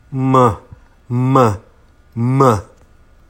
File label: M Voiced